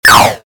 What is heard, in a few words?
evil action; CAC ASSETS